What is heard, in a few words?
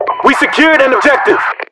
secured obj, US voiceovers